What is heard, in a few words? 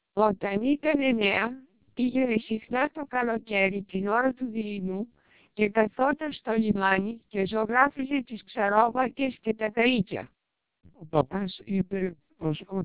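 Greek speech, TWELP 480 bps vocoder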